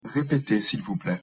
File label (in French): répétez s'il vous plait, pronunciation fr